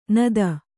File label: "ನತಿ", Kannada